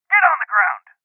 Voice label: Voices Order To Surrender Male